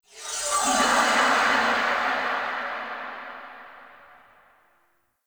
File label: Unnatural Speech; Ambience; Warframe; Shadowgrapher